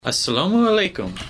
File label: uzbek hello